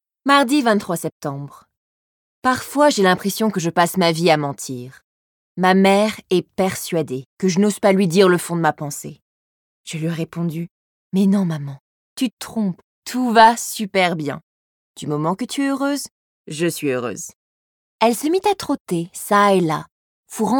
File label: French